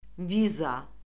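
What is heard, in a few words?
"viza", Azerbaijani; Orfoqrafiya Lüğəti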